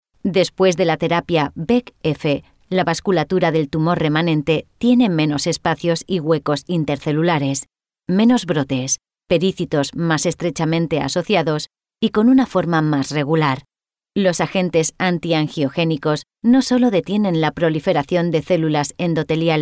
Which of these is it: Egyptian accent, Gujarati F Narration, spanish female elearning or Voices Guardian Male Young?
spanish female elearning